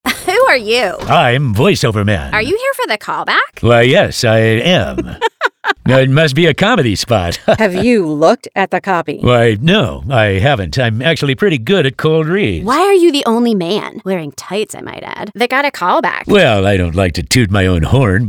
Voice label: Characters Voice Over Man